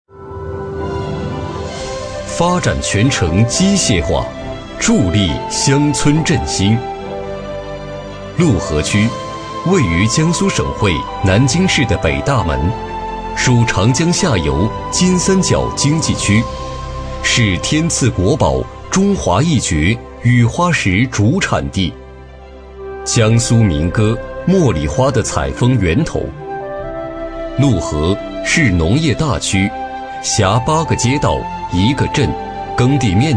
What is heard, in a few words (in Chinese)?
男6号专题 浑厚